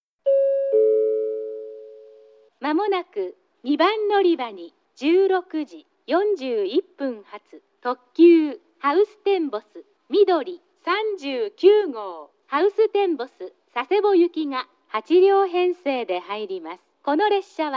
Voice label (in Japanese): 2番のりば接近予告放送; ハウステンボス・みどり39号 ハウステンボス・佐世保行き; 「早岐で切り離し」という放送が入; takeo onsen; ハウステンボスが臨時運転の列車で、定期列車と内容が異なり